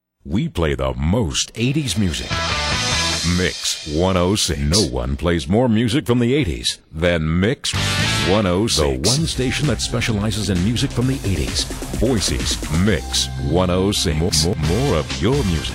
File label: sweepers